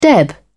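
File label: "deb", British English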